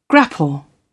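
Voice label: "grapple", British English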